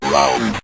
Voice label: round 2, synth voice; Kenney's Sound Pack